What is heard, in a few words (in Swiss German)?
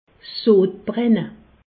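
"sodbrenne", Alsace, Haut Rhin; medecine; pyrosis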